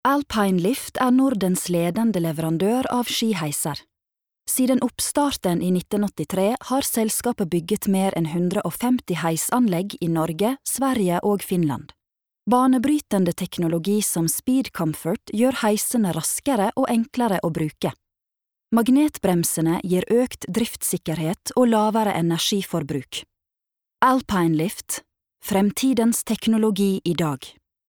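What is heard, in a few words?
Norwegian corporate nordfjordsk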